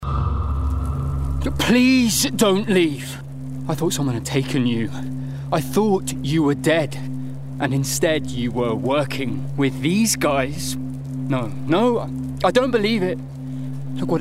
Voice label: Gaming Everyman; Gaming Character – Everyman